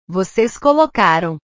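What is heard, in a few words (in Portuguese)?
"vocês colocaram", Portuguese; portugues/verbos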